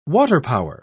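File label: "waterpower", British English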